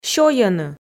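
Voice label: "сёйӧны", Komi, female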